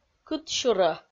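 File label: "кытсюрӧ", Komi, female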